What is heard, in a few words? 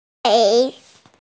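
"gei3", Mandarin Chinese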